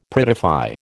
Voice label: "prettify", American

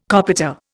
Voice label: "copita", Indian

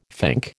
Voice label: "fink", American

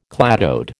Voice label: "cladode", American

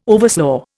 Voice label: "overslaugh", Indian

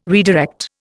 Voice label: "redirect", Indian

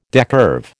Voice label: "decurve", American

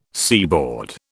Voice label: "seaboard", British

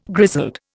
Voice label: "grizzled", Indian

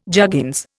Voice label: "juggins", Indian

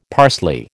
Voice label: "parsley", American

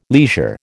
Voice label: "leisure", American

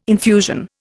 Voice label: "infusion", Indian

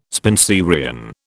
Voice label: "Spenserian", British

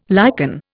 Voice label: "lichen", Indian

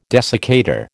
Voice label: "desiccator", American

